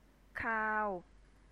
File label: "kaao" flat tone, Thai